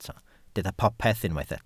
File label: "popeth", Welsh